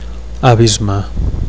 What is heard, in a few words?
"abisme", Catalan